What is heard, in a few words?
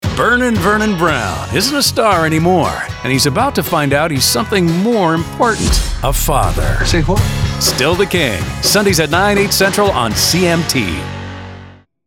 Comedy; CMT